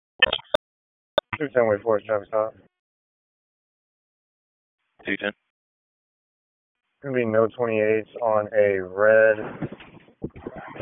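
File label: Police Dispatch; Wake Forest; p25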